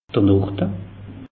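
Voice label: "norde", Alsatian; meteo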